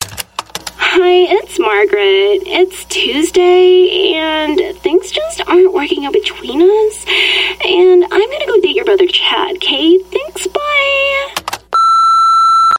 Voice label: US English Voicemail Breakup Message

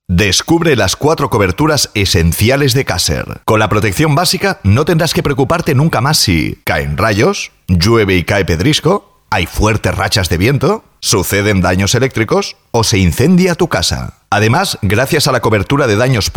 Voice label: Euro Spanish voice sample

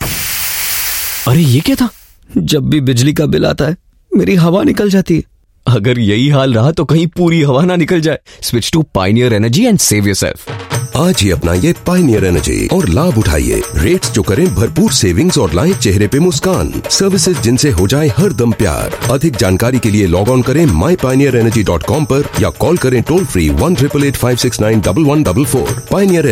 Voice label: Indian Hindi; Male; Pioneer Energy

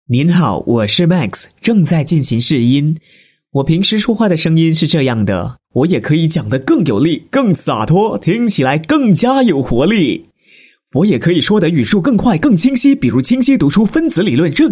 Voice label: Mandarin Male; China

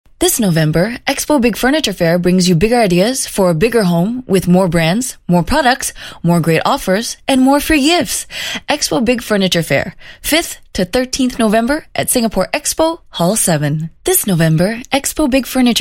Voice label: Asian English furniture fair; Big Furniture Fair

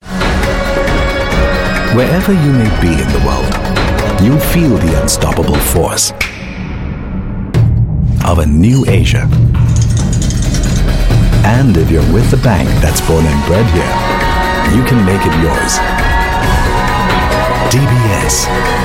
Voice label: Asian English TVC

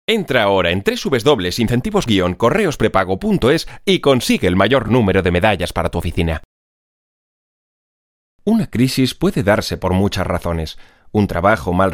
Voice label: Euro Spanish, Male

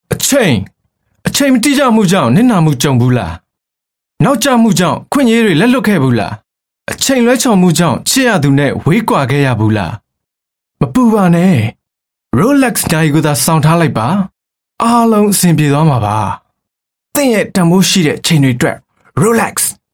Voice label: Male Burmese TVC

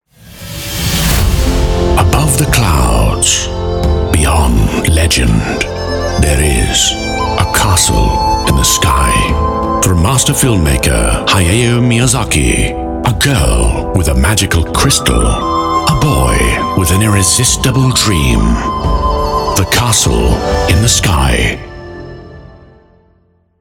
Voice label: UK English; ABOVE-THE-CLOUDS

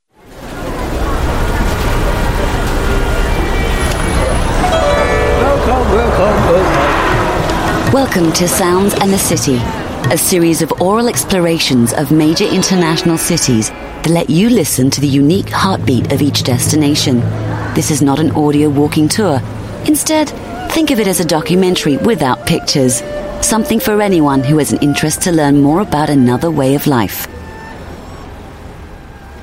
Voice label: Narration UK English; Female; QUILL UK ENGLISH

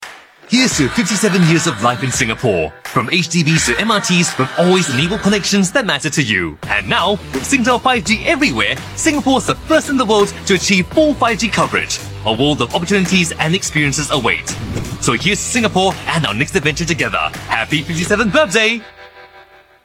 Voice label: Asian English Commercial High Energy; Singtel

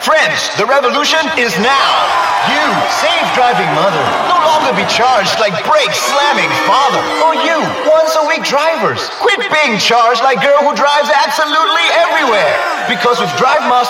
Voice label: Asian English; NTUC Income Fleximileage

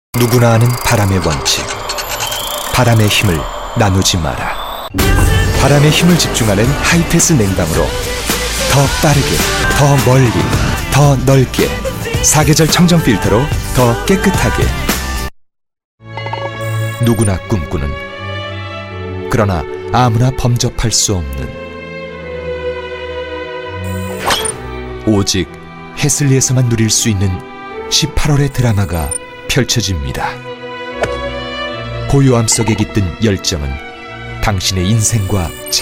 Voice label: Korean Thoughtful Read

